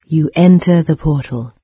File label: portal, en-UK, NARRATOR; Fate